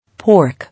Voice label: "Pork", English